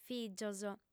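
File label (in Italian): "fìgios", lingua sarda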